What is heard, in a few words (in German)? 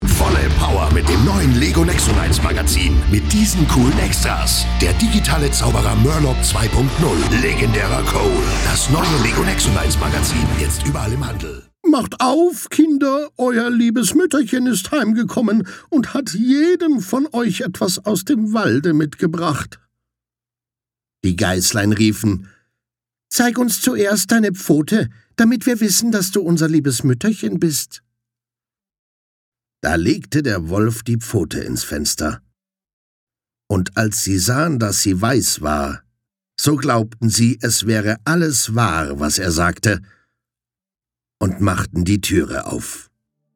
Werbung Maerchen Shortstory Trailer